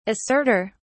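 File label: "asserter", British English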